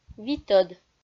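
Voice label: "витӧд", Komi, female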